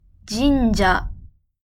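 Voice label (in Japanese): "神社", Japanese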